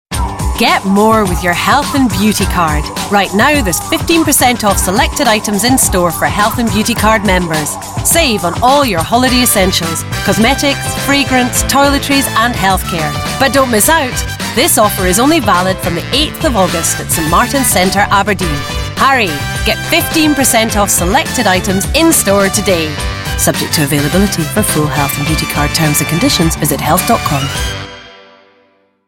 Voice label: Upbeat Commercial